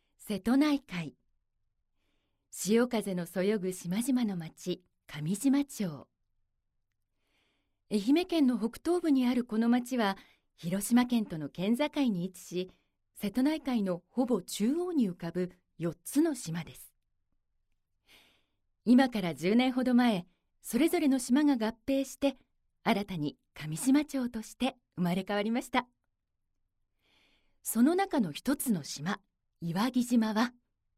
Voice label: narration